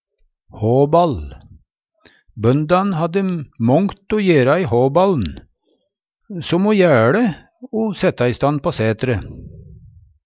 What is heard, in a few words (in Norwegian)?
haballn, Numedalsmål; Bøndan hadde mångt o gjera i håball'n som o jæLe o seta istann på sætre.